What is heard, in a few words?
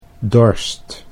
"dorst", Dutch